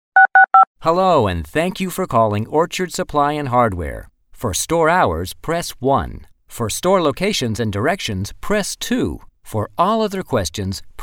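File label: American, man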